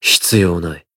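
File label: Voice growth, Japanese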